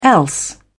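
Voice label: "else", British English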